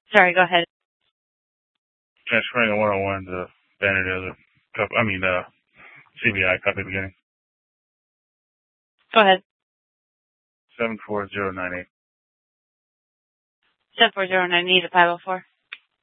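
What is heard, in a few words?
police dispatch, scanner; Mesa PD; 1 Fiesta Dispatch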